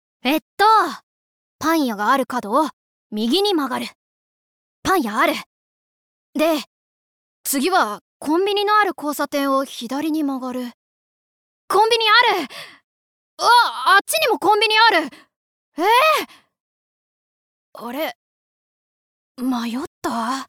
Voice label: Boy, 男の子

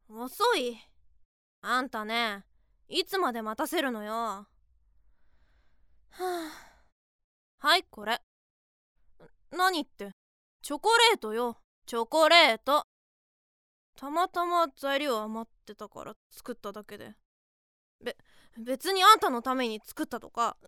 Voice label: Tsundere Joshikousei; ツンデレな女子高生; bloomz-inc

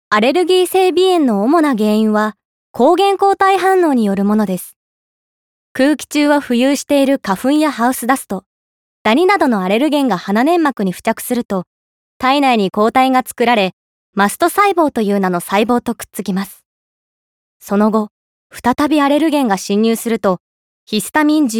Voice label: Narration Straight

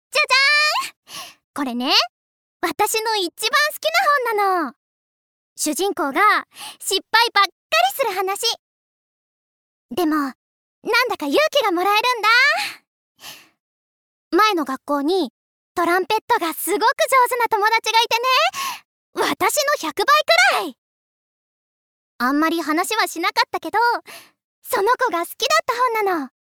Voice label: Girl Cheerful, 元気な女の子

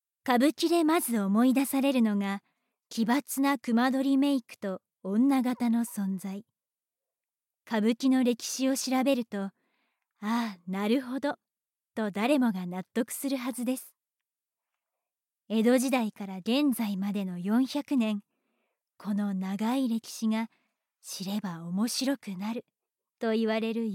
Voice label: Narration

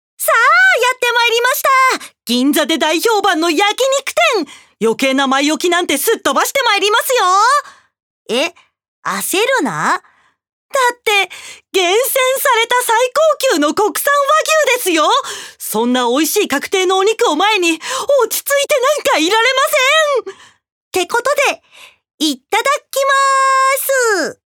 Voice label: Narration

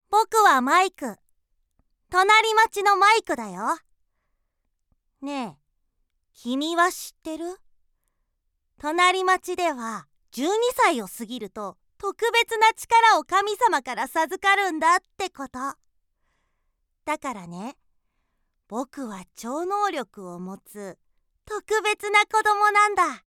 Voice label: boy

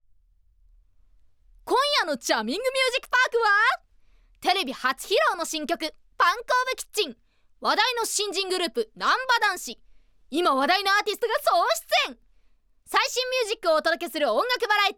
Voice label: Narration Music

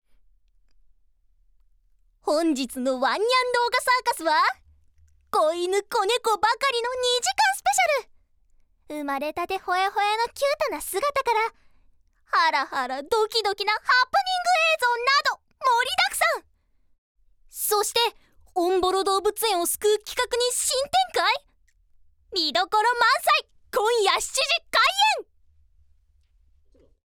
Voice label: Narration Animal

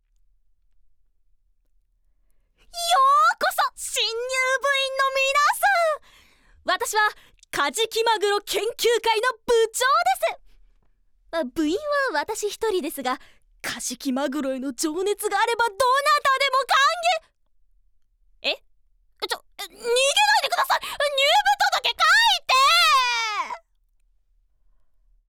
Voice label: voicesample High Tension Koukousei

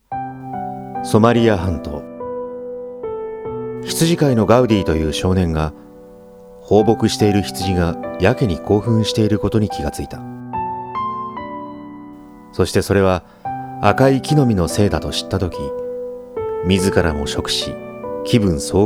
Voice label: Narration

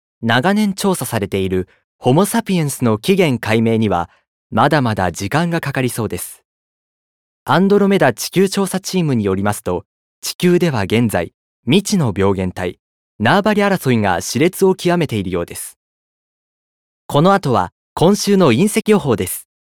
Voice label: Narration News

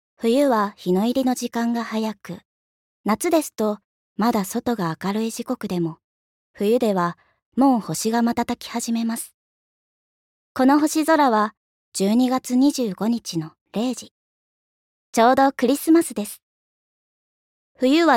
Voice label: Narration; Puranetariumu

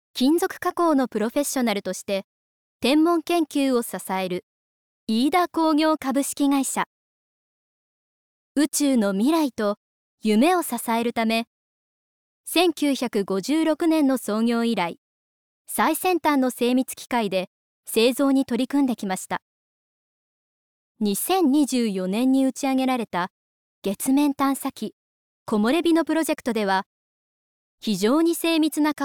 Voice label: Narration Business, ナレーション①企業紹介

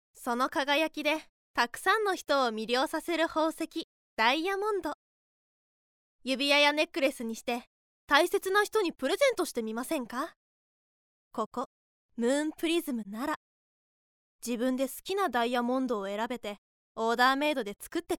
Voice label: Narration Business Ad; ナレーション②企業広告; bloomz-inc

